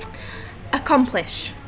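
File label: "accomplish", British female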